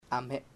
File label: hands, chamacoco